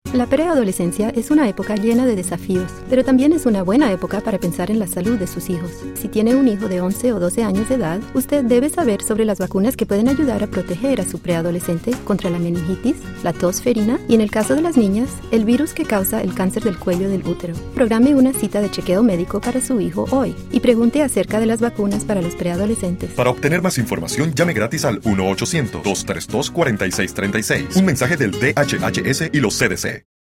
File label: Radio Spanish; vaccines; preteens-adol; PSAs